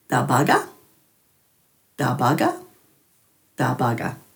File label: "dhabaga", Dhurga